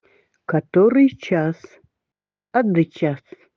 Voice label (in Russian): время эвенский; Ады час?; Яддёчинри эми гургэвчиддэ гургэлэй?